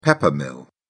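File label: "pepper mill", British English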